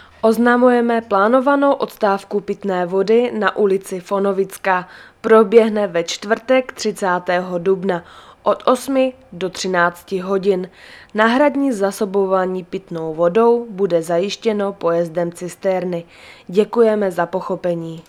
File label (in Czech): hlaseni v mestskem rozhlase; fonovice; voda; hlaseni fonovicka